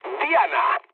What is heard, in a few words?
bomb success, mood low, speech; War Thunder